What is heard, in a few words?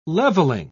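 "leveling", British English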